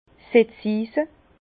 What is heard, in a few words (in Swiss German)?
"setzise", Bas Rhin; vin; pals plantoire